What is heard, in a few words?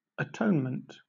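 "atonement" English, British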